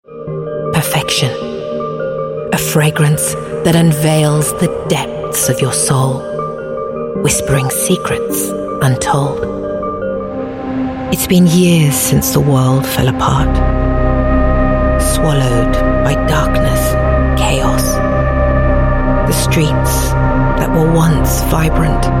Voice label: UK English Voice Reel

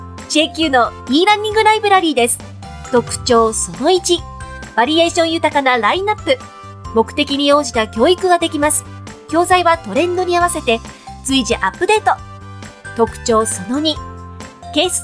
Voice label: Japanese eLearning, Female

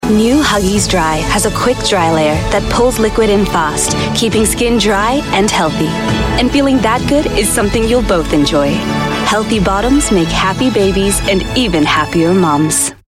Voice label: Mid-Atlantic English

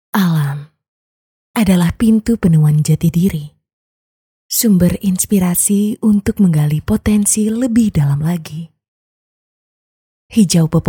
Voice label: Bahasa Indo; Flow

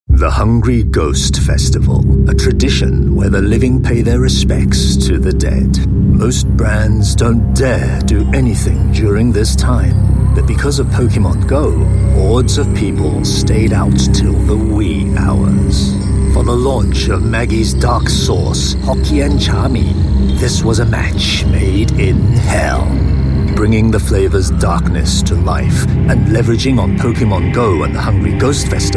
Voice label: UK English, Male; Maggie HCM CCAS

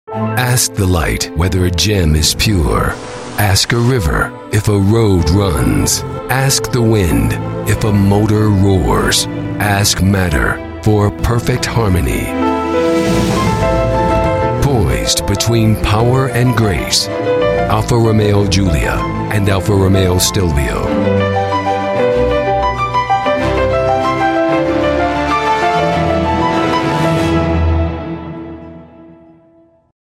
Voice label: US English; Alfa Gulia Stelvio

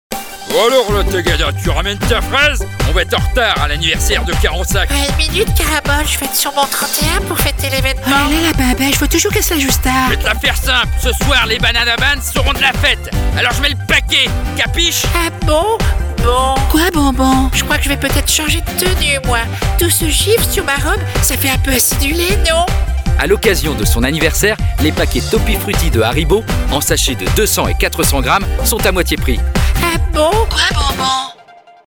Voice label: French Advertising; Haribo

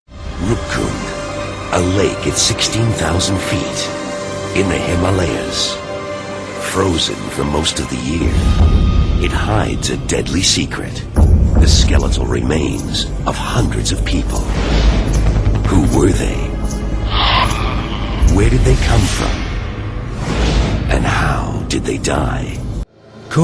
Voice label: US English Factual Documentary

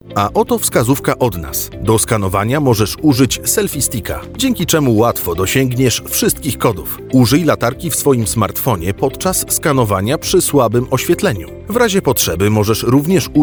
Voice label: Polish Multimedia

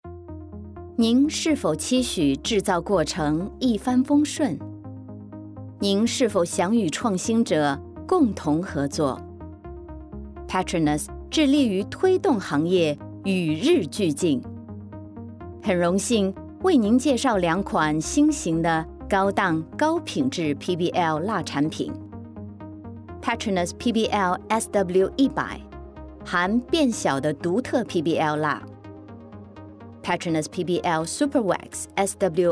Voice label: Mandarin China Corporate

